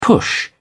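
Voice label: "push on", British English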